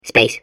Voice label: babble; portal2